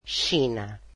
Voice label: portuguese China